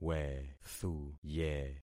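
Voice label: "wethuye", concatenated syllables; Affixing experiment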